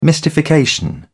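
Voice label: "mystification", British English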